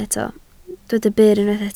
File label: "byr", Welsh